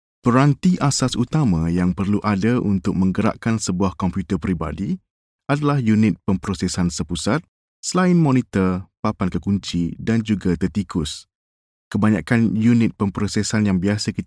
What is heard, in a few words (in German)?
Sprecher Malaiisch; Nachvertonung Film